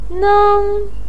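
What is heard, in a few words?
"neng", Mandarin Chinese first tone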